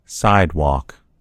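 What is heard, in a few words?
"sidewalk", American English; gcompris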